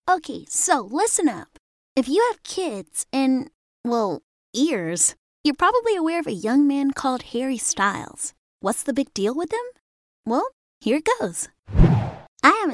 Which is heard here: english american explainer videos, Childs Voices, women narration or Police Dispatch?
Childs Voices